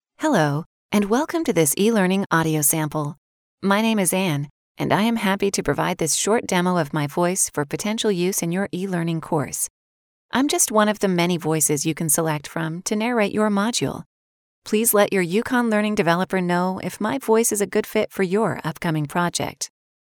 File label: Formal; voice talent; e-learn